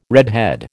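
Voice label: "redhead", American English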